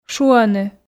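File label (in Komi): "шуӧны", Komi, female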